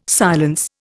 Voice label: "silence", Indian